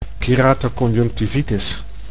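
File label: "keratoconjunctivitis", Dutch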